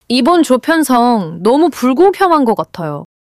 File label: anger; monologue